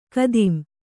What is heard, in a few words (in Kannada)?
"ಖತಂ", Kannada